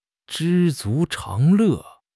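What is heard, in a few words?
"知足常乐", Mandarin Chinese; HSK6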